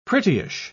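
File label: "prettyish", British English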